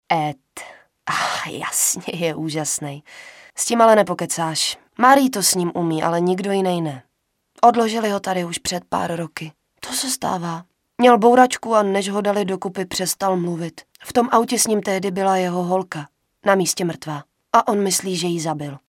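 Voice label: female Czech drama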